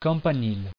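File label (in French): "campanile" French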